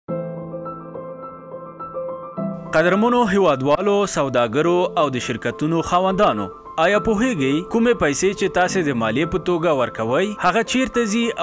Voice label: Pashto; ARD